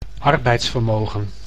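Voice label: "arbeidsvermogen", Dutch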